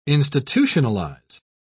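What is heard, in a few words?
"institutionalise", British English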